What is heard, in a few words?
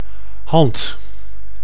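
"hand", Dutch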